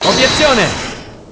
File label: "Obiezione", Italian; Edgeworth